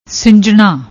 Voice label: "sinjna", Punjabi; ਸਿੰਜਣਾ

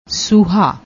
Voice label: "suha", Punjabi; ਸੂਹਾ

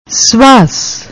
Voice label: "svaas", Punjabi; ਸ੍ਵਾਸ

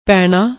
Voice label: "painaa", Punjabi

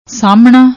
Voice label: "saamna", Punjabi

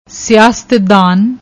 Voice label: "siastdaan", Punjabi; ਸਿਆਸਤਦਾਨ

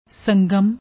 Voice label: "sangm", Punjabi

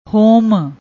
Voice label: "hom", Punjabi

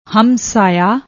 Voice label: "hmsaaia", Punjabi

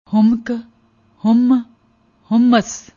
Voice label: "humak", Punjabi; ਹੁੰਮ੍ਹ

